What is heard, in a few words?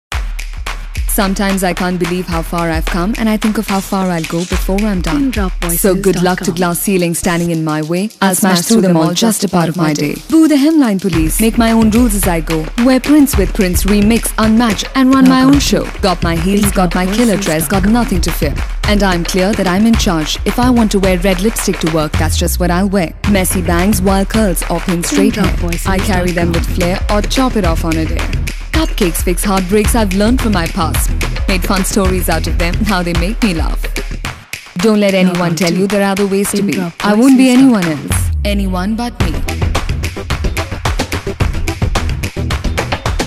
English